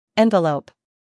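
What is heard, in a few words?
"scandal", American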